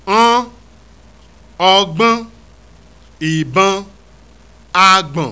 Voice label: on on on on, Yoruba; NASAL VOWELS; ogbon ibon agbon